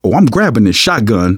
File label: take auto shotgun, survivor voice manager; Left 4 Dead 2; left4dead2 dlc3